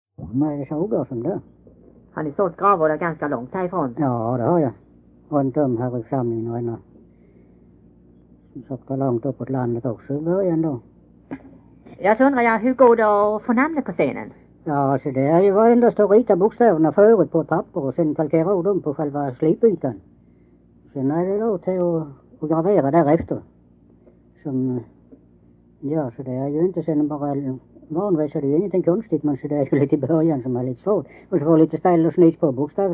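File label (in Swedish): Kinnared Halland, dialekter, svenska sagor; Folkminnen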